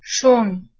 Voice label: "shun" Armenian